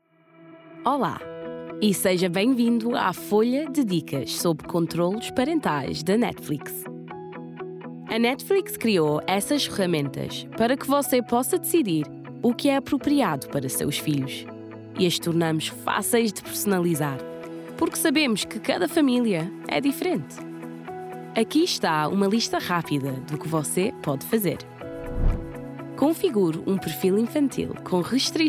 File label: Portuguese; Netflix